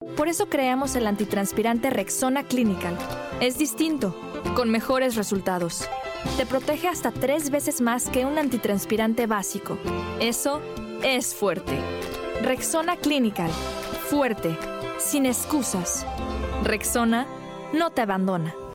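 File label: Spanish Latin America, Commercial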